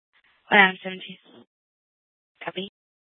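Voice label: Police Department Dispatch, scanner; Mesa PD; 4 Superstition Dispatch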